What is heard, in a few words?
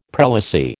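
"prelacy", American English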